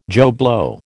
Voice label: "Joe Blow", American